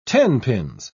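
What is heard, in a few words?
"tenpins", British English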